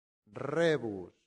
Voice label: "rebus", latin; santillana

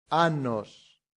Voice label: "annos", latin; santillana

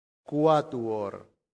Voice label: "quattuor", latin; santillana

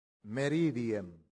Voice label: "meridiem", latin; santillana

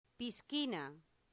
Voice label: "piscina", Latin; santillana

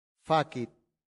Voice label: "facit", latin; santillana

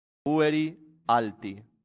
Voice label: "pueri alti", latin; santillana

